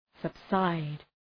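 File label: "subside", English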